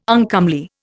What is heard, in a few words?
"uncomely", Indian